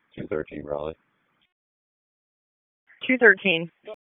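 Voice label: Police Northeast District Dispatch, p25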